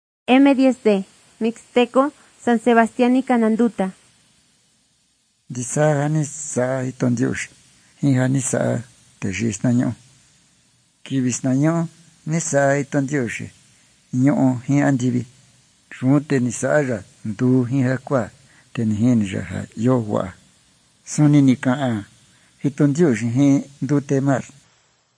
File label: mixtec diagnostic spanish mexico